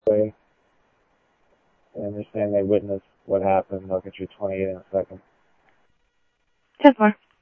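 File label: Sheriff West County, scanner audio trunk; ThompsonPeakScot; MCSO W TAC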